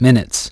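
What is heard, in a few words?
"minutes", English; iOS 4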